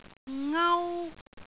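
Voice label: "ngau1", Cantonese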